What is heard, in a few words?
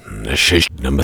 Magician Tarot Card Reverse Voiceover